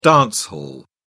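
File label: "dance hall", British English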